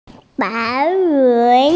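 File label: "white cloud", Mandarin, hearing-impaired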